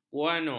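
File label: "guano", Malay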